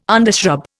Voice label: "undershrub", Indian

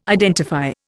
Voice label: "identify", Indian

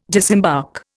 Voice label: "disembark", Indian

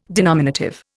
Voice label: "denominative", Indian

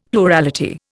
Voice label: "plurality", Indian; Dictionary

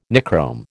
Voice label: "Nichrome", American

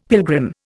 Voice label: "pilgrim", Indian; Dictionary

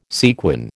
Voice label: "sequin", American

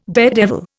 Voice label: "daredevil", Indian

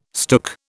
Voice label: "stook", British

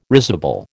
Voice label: "risible", American

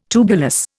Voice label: "tubulous", Indian

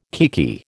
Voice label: "kiekie", American

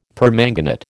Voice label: "permanganate", American

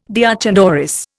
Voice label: "deoch an doris", Indian

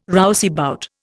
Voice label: "rouseabout", Indian

